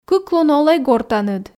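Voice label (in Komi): кык лун олӧй гортаныд, Komi, female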